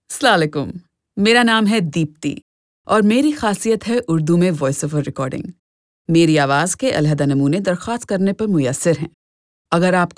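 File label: Urdu, voice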